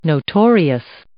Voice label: "notorious", English

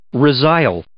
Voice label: "resile", English

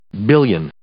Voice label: "billion", English

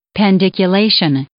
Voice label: "pandiculation", English

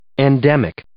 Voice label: "endemic", English

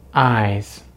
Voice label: "eyes", English

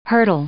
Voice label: "hurdle", English; noun

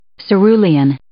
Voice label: "cerulean", English

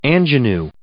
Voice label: "ingenue", English

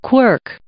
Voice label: "quirk", English

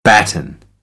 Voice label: "batten", American English

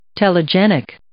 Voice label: "telegenic", English

